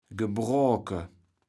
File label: "gebrooke", Kaevels Platt